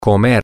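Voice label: to eat, Spanish, male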